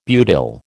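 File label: "butyl", American English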